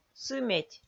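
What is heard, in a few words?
"сыметь", Komi, female; audio dict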